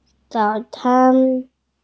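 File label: "breakfast", Mandarin Chinese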